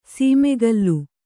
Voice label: "ಸಮಕಲು", Kannada

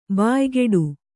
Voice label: "ಬಾಯ್ಗೂಡು", Kannada

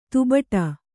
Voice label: "ದಬ್ಬಾಡು", Kannada

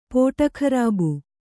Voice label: "ಪೋಟಖರಾಬು", Kannada

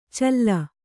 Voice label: "ಛಲಿ", Kannada

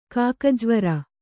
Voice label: "ಕಾಕಜ್ವರ", Kannada

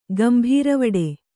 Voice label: "ಗಂಭೀರವಡೆ", Kannada

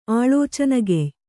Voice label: "ಆಳೋಚನಗೆಯ್", kannada

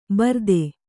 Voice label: "ಬರ್ದೆ", Kannada

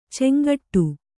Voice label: "ಚಂಗಾಟ", Kannada

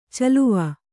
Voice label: "ಚೆಲುವು", Kannada

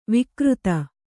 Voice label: "ವಕ್ರಿತ", Kannada

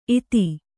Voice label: "ಈತಿ", Kannada

